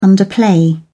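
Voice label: "underplay", English, British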